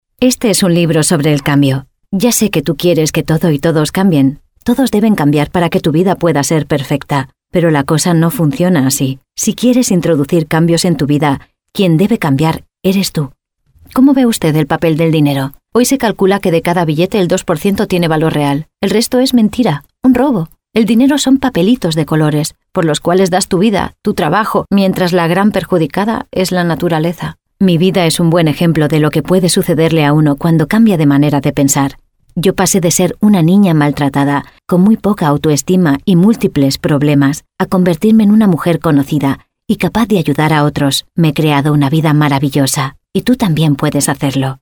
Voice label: spanish audiobooks

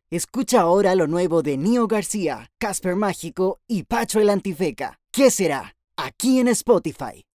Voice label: spanish latin american promos

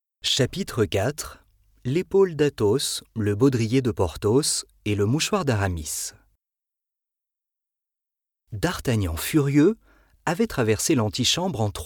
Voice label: french audiobooks

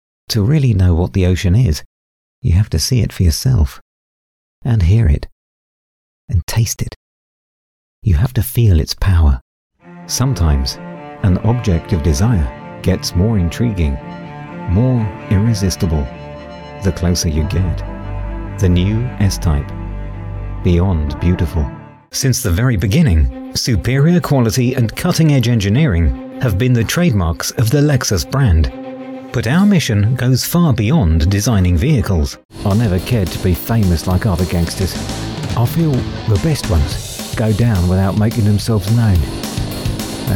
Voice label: english british commercial

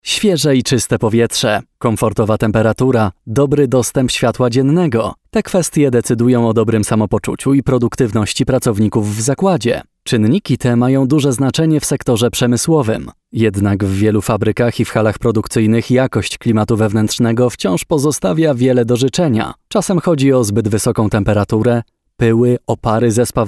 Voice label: polish corporate videos

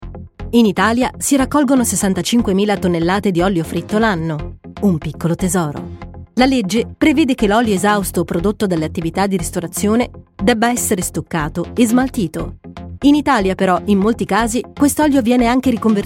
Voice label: italian documentaries

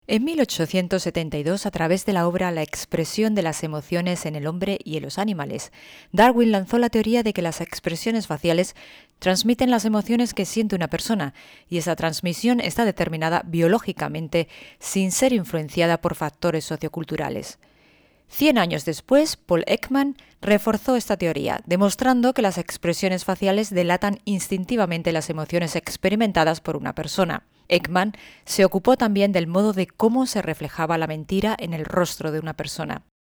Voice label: spanish narration

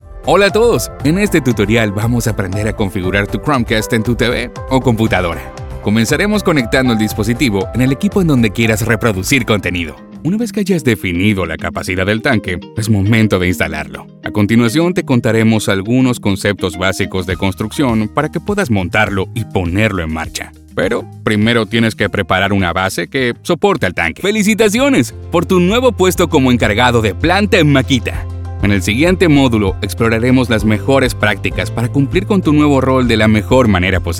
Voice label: Spanish Latin American e-learning

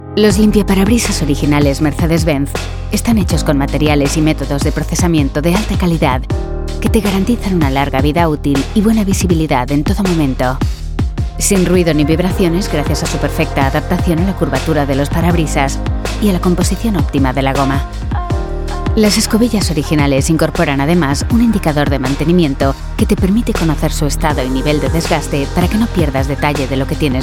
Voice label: spanish automotive

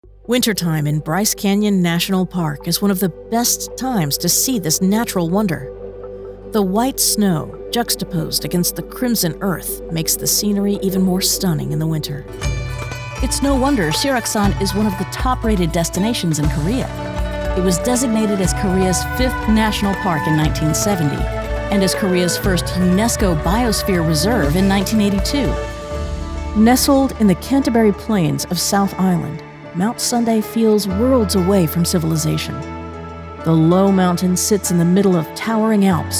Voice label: English American narration

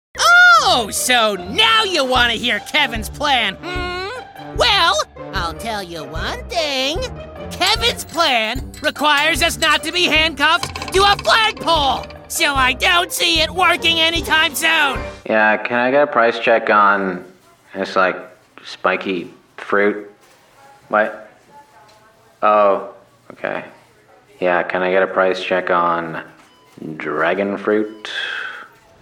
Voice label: english canadian animation

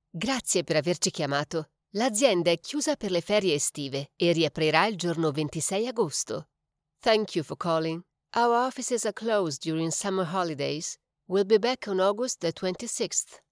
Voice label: italian ivr

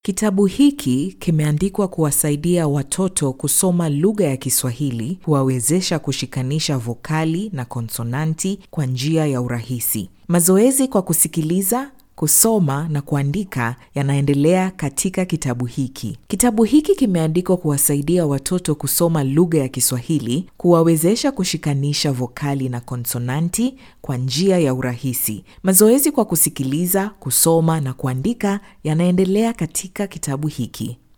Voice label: swahili e-learning

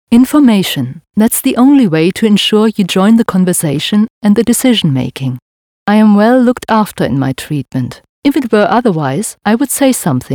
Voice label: english corporate videos

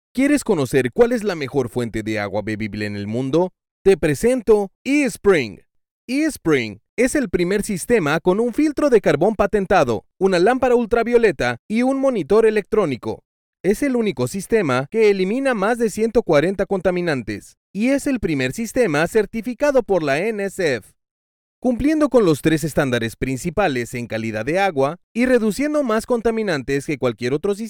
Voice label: Spanish Mexican commercial; Mexico; Spanish voiceover talent

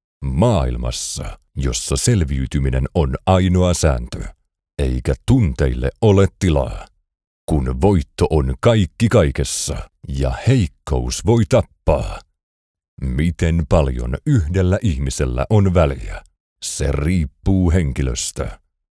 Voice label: finnish movie trailers

